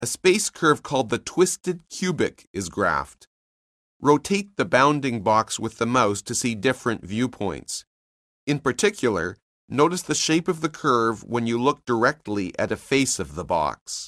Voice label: narration; CALCULUS 7E; cengage